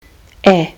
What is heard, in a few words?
a is in bach, kiwi english